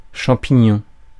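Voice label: "champignon", French; Mots francais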